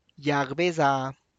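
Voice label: tlacochahuaya, Zapotec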